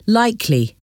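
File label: "likely", English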